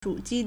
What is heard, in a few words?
"zhu3 ji1", Chinese